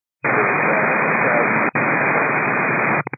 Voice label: 122 925MHz, scanner radio